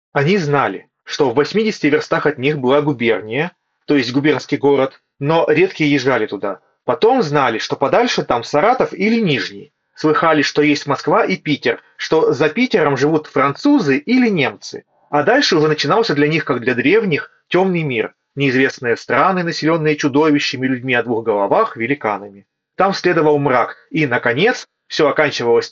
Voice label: Mic; Запись с микрофона гарнитуры; realme buds clip